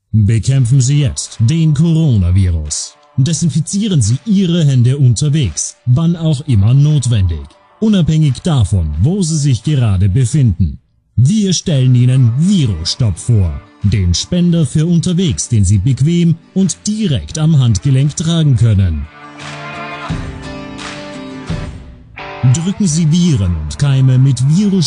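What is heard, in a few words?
German, male; almanca seslendirme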